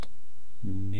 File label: "ni", East Cree syllabics